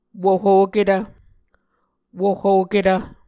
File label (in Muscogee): "wohoketv", Muscogee